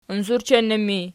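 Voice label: "unsurchennu" ergative, Archi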